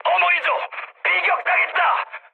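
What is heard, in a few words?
Korean aircraft damaged mood high; speech; War Thunder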